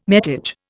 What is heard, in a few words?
"metage", Indian